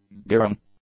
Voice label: "gearing", English; nouns